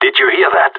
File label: heard something, radio; GSG9